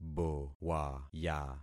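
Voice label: "bowaya", concatenated syllables; Affixing experiment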